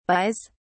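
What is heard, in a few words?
"buys", English, British English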